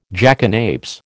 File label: "jackanapes", American